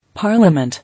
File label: "Parliament", English